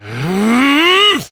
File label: Abil Exert Adult en; Warframe; Duviri; Drifter; Melee